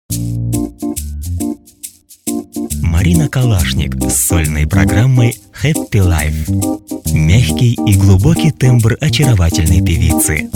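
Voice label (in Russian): реклама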